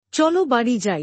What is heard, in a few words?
Let’s go home-Bangla; Time and Routine